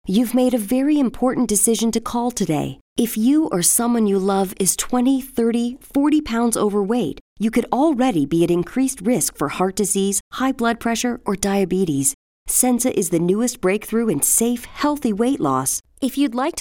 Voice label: American IVR